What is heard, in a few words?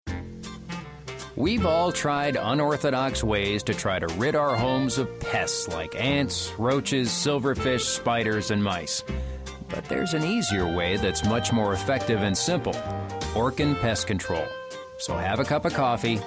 Commercial